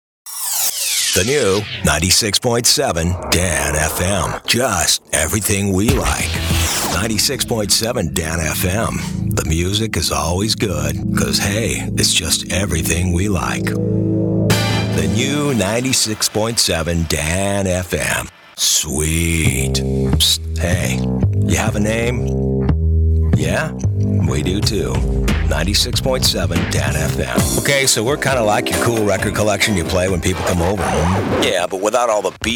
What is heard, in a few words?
radio male AC; radio imaging